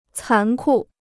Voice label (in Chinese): "残酷", Chinese